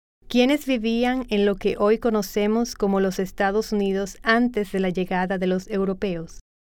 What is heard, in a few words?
Spanish